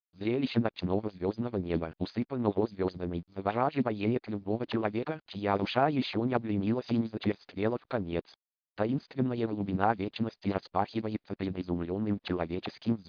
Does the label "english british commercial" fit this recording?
No